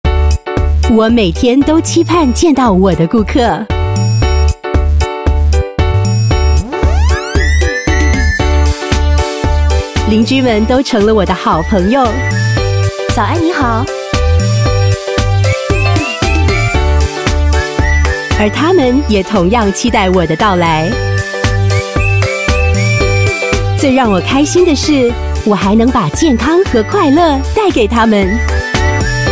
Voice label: Mandarin Commercial, China Female; Recruitment of Yakult Lady Agent Singapore

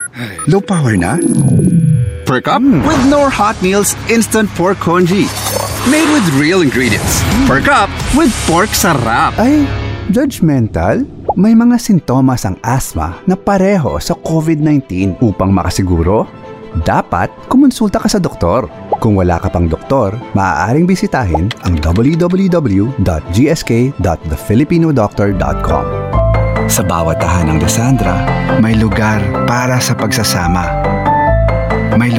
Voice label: Tagalog Taglish

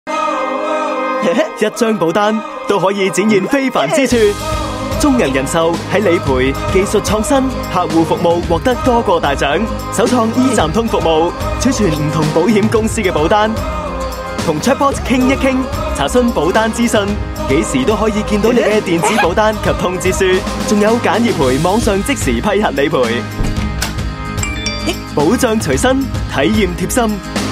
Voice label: Cantonese; BOC Life

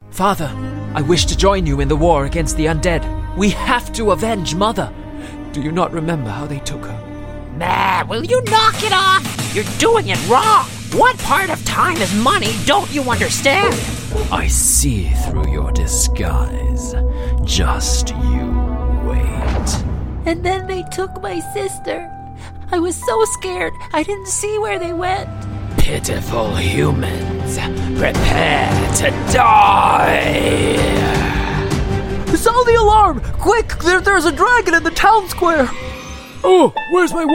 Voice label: Asian English animated reel

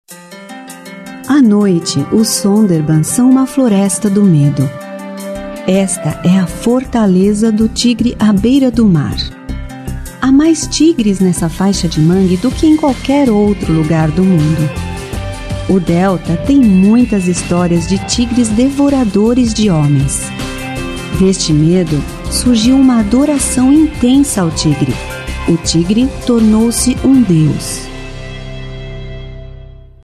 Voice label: Narration Brazilian, Brazilian Portuguese Female

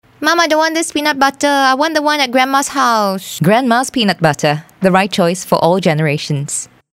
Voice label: Asian English child

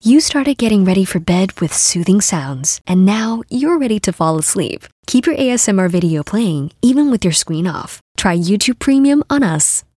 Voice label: Asian English ASMR

